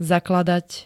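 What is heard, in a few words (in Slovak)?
"zakladať", Slovenské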